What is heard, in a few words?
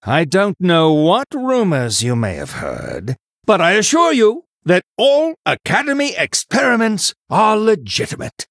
Male Human Flavor